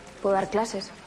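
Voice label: VoiceActing Spanish, female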